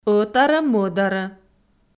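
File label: "ӧтарӧ-мӧдарӧ", Komi, female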